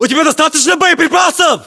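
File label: status check, battlechatter, Russian; localized english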